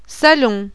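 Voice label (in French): "SALON", French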